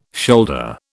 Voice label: "shoulder", British

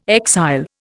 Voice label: "exile", Indian

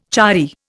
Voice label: "chary", Indian

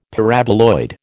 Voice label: "Paraboloid", American

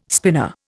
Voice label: "spinner", Indian

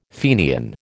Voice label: "Fenian", American

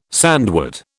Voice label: "sandwort", British

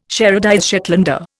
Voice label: "sherardize Shetlander", Indian

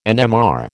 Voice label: "NMR", American

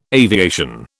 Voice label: "aviation", British

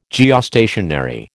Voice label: "Geostationary", American

